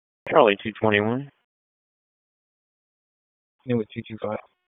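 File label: Police Northeast District Dispatch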